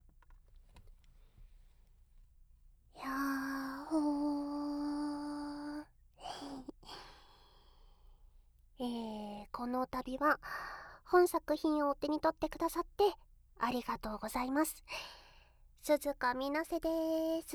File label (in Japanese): フリートーク; KU100; 女子力MAX小柄女子; SEなし